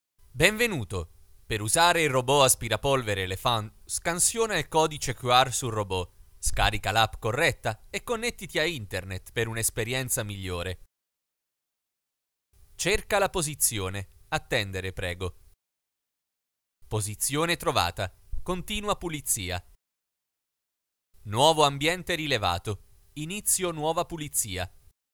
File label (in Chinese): 意大利语试音